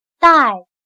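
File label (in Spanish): "带", chino mandarin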